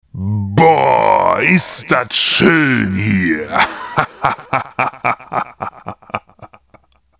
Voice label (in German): Boooaaahh is dat schoen hier (hahahahaha)